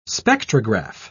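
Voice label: "spectrograph", English, British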